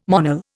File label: "Monel", Indian